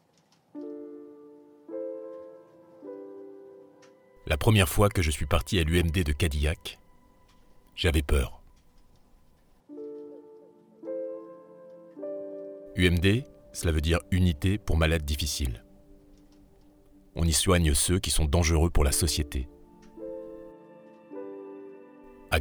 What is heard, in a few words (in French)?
Documentaire; Discovery; Cadillac